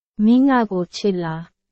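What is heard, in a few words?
Burmese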